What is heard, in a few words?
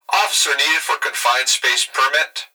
Emergency Human Male Voice Plant Security Dispatch Officer Needed For A Confine Space; Permit